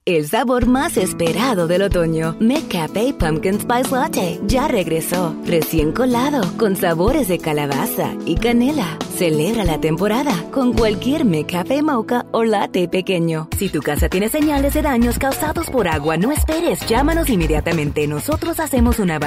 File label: Spanish